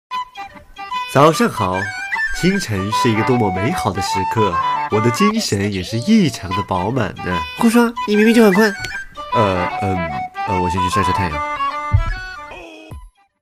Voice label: 轻松 搞笑

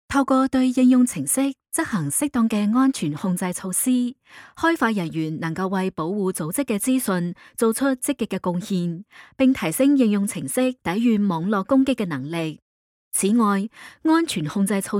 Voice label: 粤语 培训课程

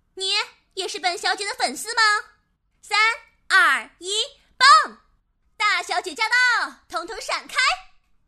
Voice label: 少女傲娇

